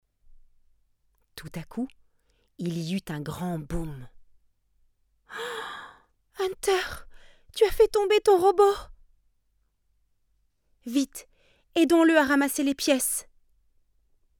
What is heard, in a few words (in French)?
robots fr; marmite